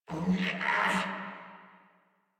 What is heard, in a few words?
Struggle Crewmen; Warframe; Corpus Crewmen; Crewman D